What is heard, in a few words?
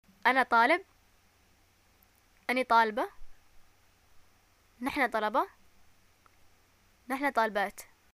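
I'm student, Yemeni arabic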